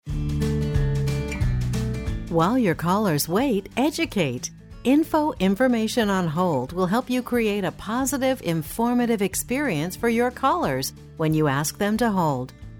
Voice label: US Voice